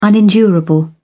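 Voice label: "unendurable", British English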